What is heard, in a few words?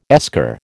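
"esker", American English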